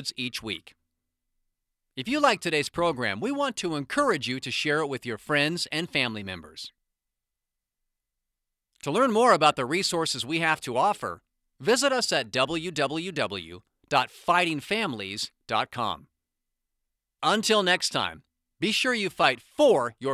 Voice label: Podcast Intro and Outro; Fighting Families Podcast

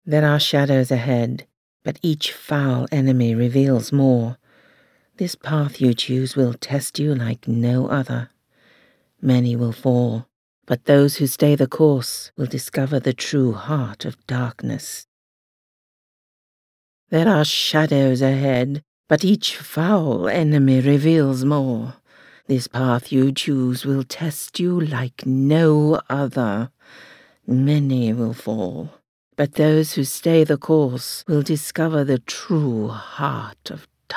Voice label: Fantasy Game Trailer

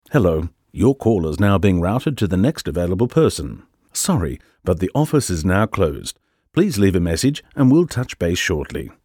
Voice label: Telephone Message

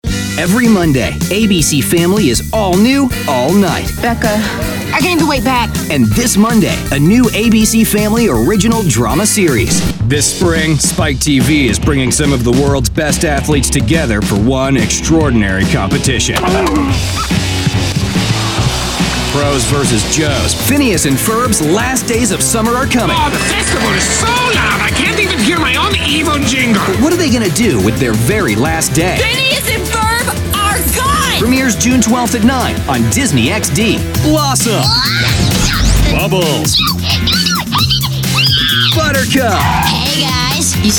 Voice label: Promo

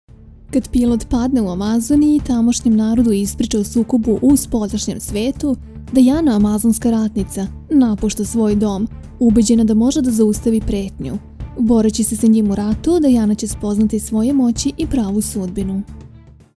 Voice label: intro trailer serbian

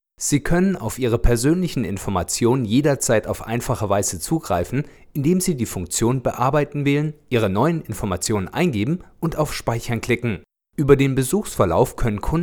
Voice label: Explainer Customer Lounge

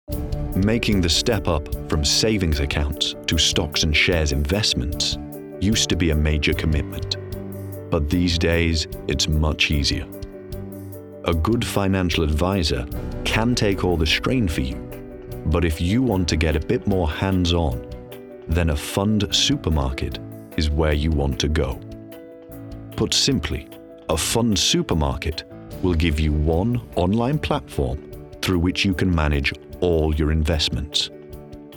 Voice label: Consumer Finance E-Learning

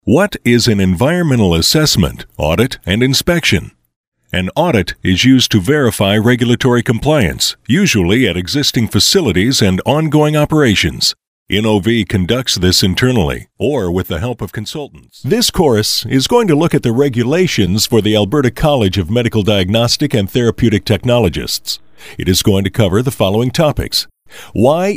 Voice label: Educational VO; The Voice Realm

